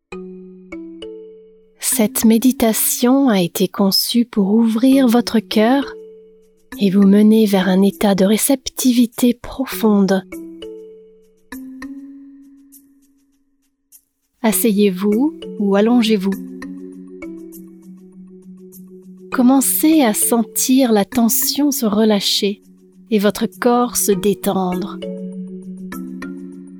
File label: french others